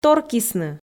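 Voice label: "торкисны", Komi, female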